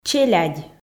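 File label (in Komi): "челядь", Komi, female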